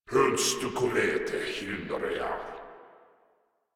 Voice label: Patrol, Grineer Male; Warframe

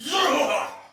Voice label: Attack Heavy Soldier Male; Sinecure

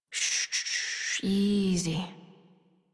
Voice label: Horse Calm Adult; Warframe; Duviri

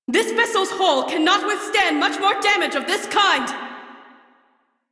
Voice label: Crew Ship Low, Female; Railjack Crew; Cephalon Suda

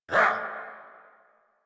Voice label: angry, en; Warframe; Tyl Regor Boss; Efforts

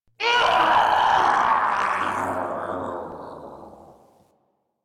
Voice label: Death Ghoul Saw, en; Grineer Ghoul Sawman

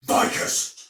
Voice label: See Ally Die, Soldier Male; Dialog; Barks; Battle; Sinecure

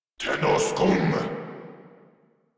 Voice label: Player Spotted, Grineer Male

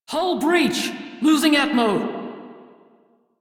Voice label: Crew See Breach, Female; Dialog; en; Warframe; Railjack Crew; Steel Meridian

